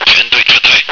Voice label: fallback, radio